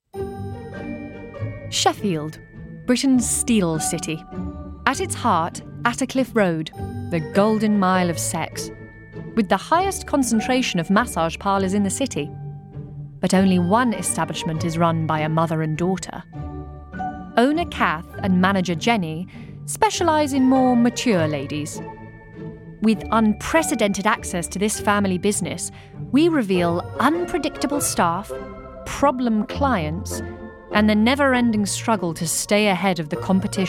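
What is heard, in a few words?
documentary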